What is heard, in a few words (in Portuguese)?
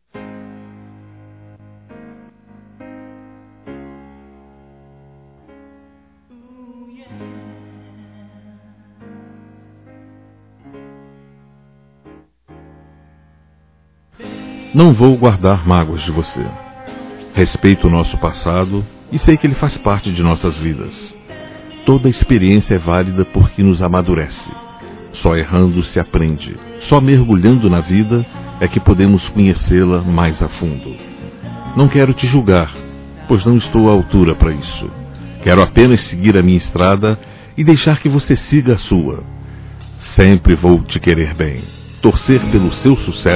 término relação masculino; Telemensagens; Reconciliação